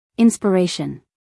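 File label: "inspiration", American English, female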